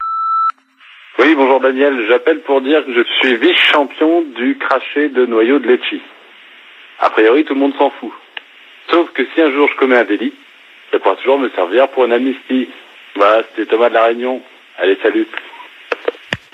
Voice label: repondeur; Amnistie de Guy Drut

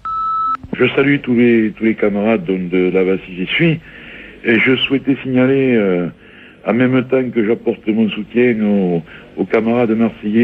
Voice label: repondeur; Entreprise autogeree

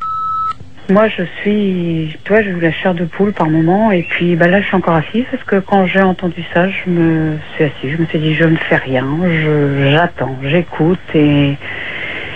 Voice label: repondeur; Avant de mourir

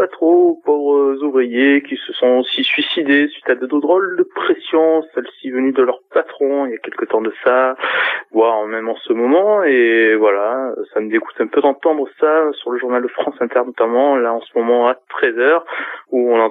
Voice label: Suicide Loiseau repondeur

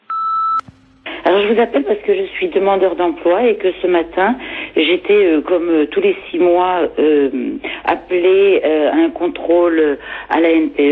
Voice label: repondeur; Radiations ANPE

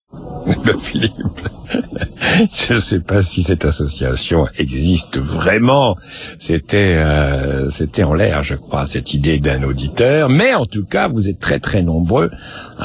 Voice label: Pub répondeur; France Inter

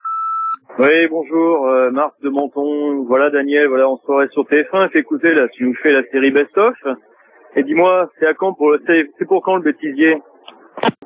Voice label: répondeur; Meilleur repondeur

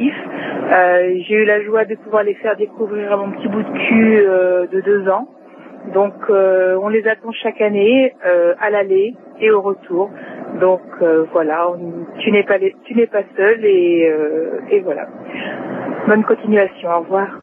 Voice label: Oies sauvages repondeur

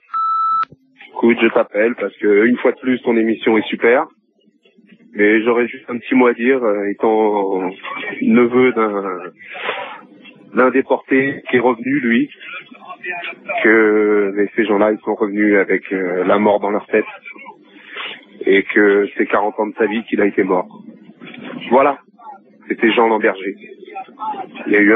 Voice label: Lettres fusilles repondeur

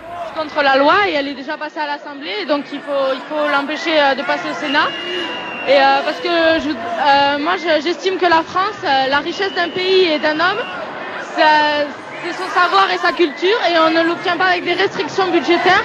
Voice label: manifestante lyceenne; Loi Fillon

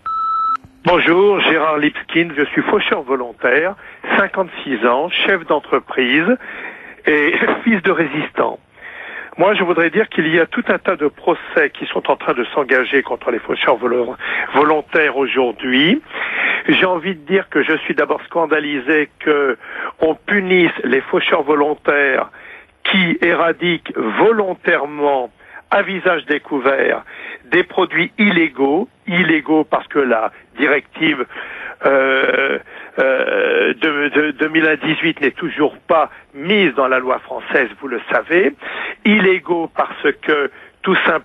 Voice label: repondeur; Faucheurs volontaires

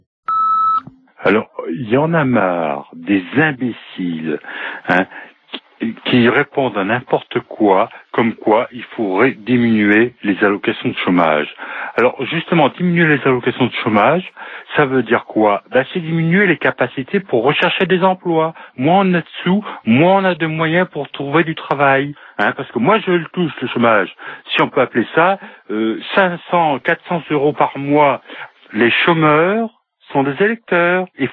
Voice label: Assurance chomage repondeur

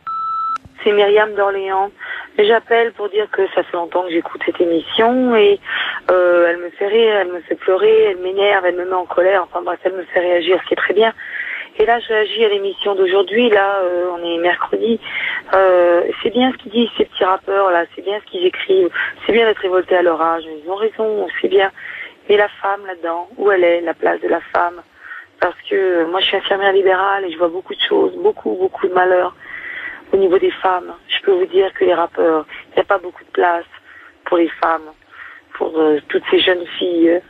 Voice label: repondeur; Le rap et les femmes

